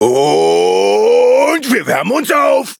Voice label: loading, de; cookie run